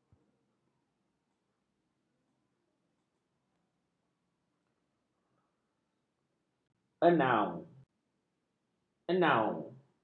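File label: "enau", Malay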